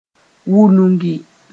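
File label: "wũnugi", Mooré; to brood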